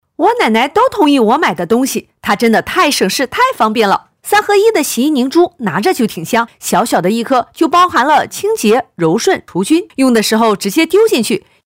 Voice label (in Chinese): 卖货